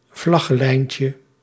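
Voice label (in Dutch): "vlaggenlijntje", Dutch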